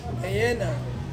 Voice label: "ena", Ansus; to sleep